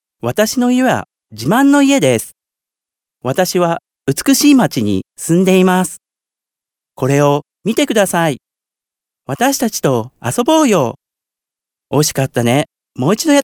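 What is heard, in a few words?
Japanese male children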